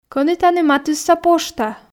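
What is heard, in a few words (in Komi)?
Komi, кӧні тані матысса пошта, female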